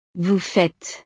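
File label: "vous faites", French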